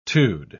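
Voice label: "-tude", British English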